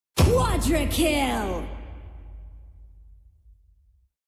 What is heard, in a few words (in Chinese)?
四杀[语气1] 击杀音效; 王者荣耀